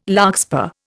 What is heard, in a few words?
"larkspur", Indian